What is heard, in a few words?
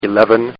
Man Says, Talking; Partners In Rhyme